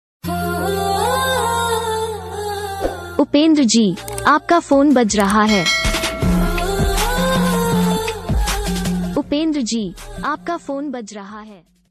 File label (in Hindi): upendra ji aapka phone baj rha hai, upendra name ringtone